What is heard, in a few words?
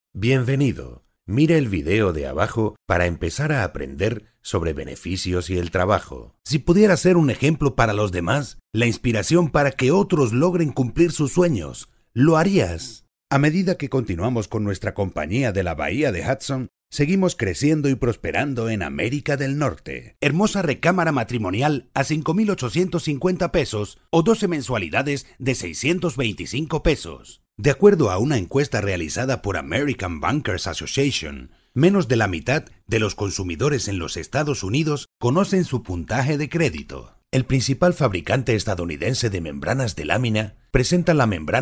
Latino